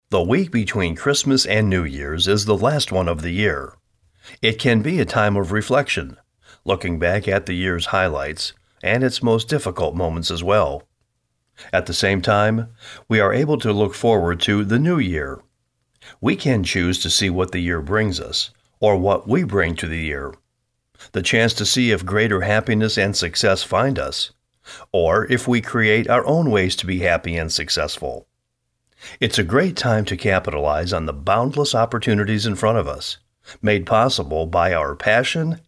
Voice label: narration sample